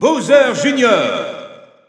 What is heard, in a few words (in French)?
French EU Announcer; Bowser Jr.; SSBU